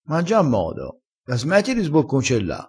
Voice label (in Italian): "sbocconcella", crespinese